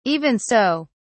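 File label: "even so", British English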